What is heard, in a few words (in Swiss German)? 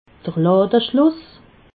"ladeschluss", alsace